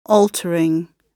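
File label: "altering", British English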